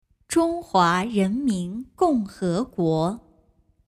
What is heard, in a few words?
"Zhonghuarenmingongheguo", Chinese